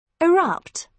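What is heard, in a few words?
"erupt", British English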